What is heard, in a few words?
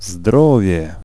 Health, vocals pl; fan made